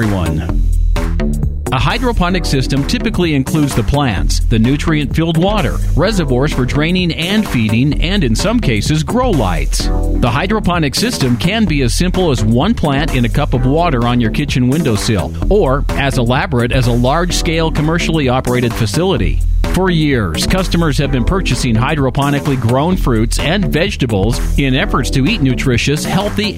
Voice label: read with bed; Hydroponic

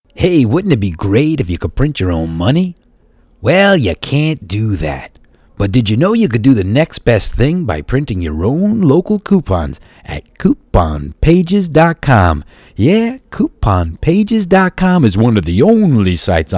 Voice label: Commercial; CouponPages

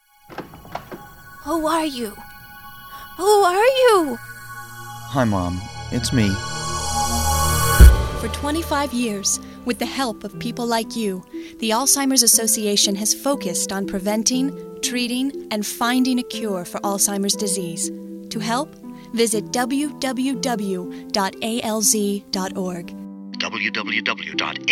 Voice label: woman

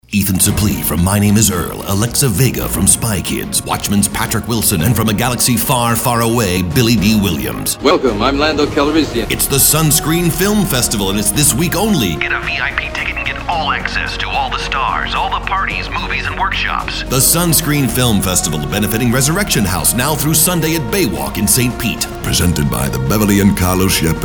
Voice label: Radio Promo

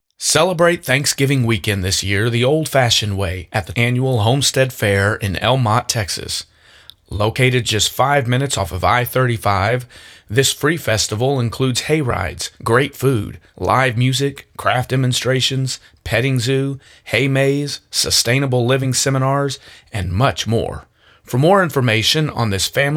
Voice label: voiceover, no soundtrack; PSA 2014 Fair

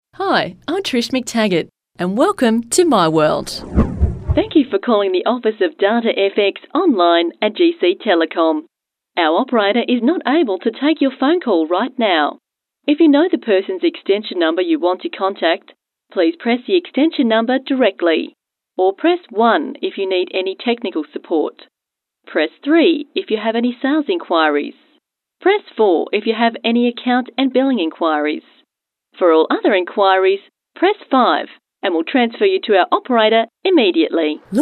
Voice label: Voice Over

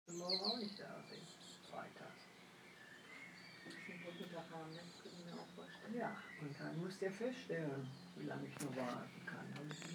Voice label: German

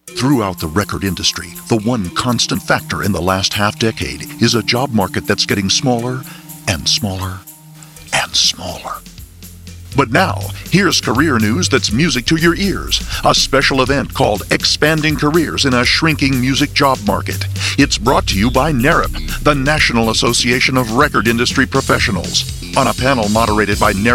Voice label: whisper; NARIP

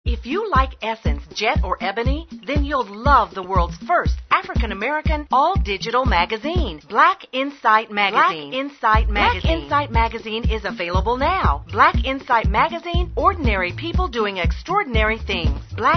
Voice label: 60 sec spot

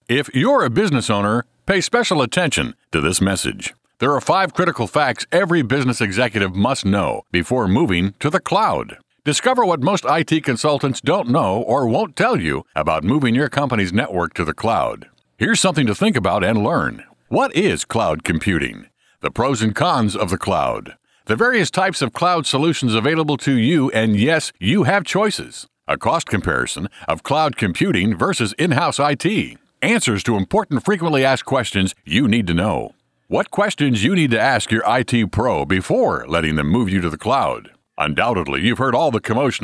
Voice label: Radio

